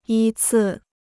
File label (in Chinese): "依次", Chinese